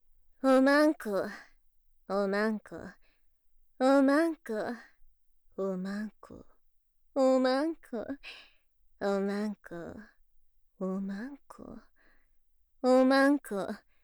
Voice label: ツインテJK

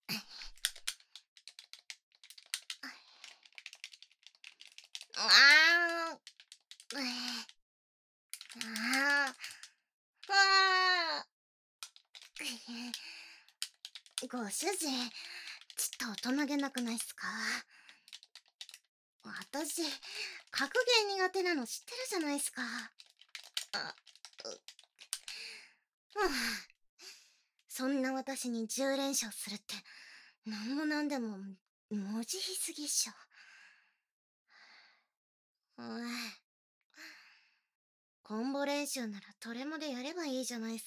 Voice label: (背景音なし) 吐息耳舐め攻撃; メイドの逆襲（ゲームには負けないっス）

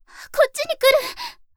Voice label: こっちに来る！, アナウンサー; ハイグレボイス集

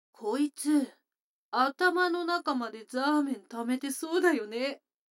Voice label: 女の子台詞

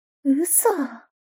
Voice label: mob 女子 台詞

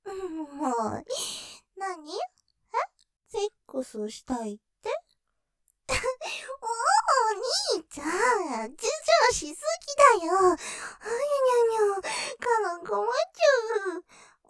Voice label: 導入, ASMR; 立体; ステレオ; せっくすしたいって?ｗ; ループで楽しむ！シェフの気まぐれ抜き抜きコース

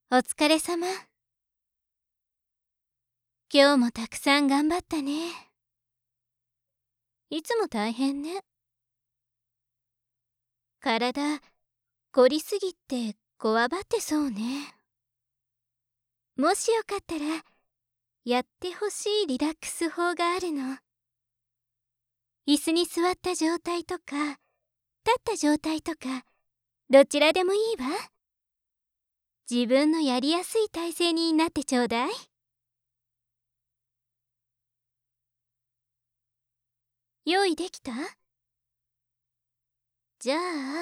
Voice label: ストレッチ 腕回し, 姉とリラックスする

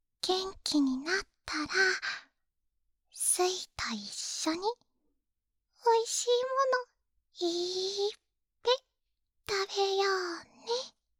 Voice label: 励ましボイス

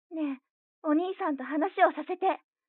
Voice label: 彼女の電話台詞, ASMR

